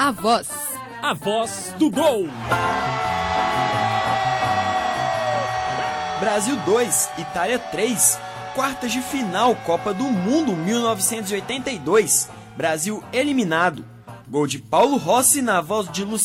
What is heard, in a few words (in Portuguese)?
LOCUTOR NARRA GOL; LUCIANO DO VALLE; GOL DE PAULO ROSSI NA COPA DE 1982